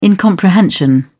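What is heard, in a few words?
"incomprehension", British English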